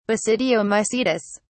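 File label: "basidiomycetes", British English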